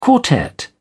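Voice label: "quartet", British English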